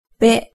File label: "be"